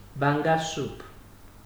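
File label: "banga soup", Nigerian Pidgin, male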